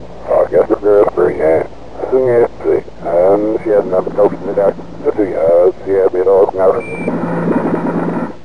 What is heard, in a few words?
radio chatter, ambience; vendetta